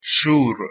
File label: "shur", Persian